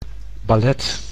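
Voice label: "ballet", Dutch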